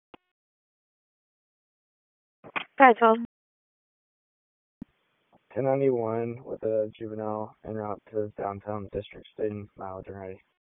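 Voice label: Raleigh Police Southeast District Dispatch, p25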